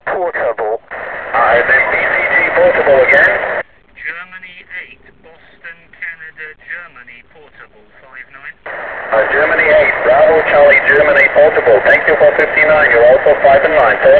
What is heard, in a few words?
qso